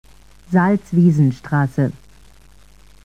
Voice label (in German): Ansage Haltestelle Salzwiesenstr; SSB AG